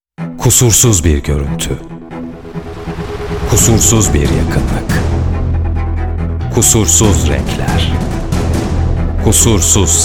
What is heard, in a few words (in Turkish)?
reklam; moviemax